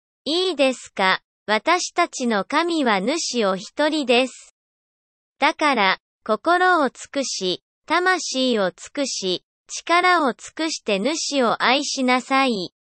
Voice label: Japanese; DEUT 6 4 5